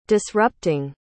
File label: "disrupting", pronounce